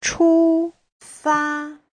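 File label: "chūfā", Mandarin Chinese; Giáo trình Hán ngữ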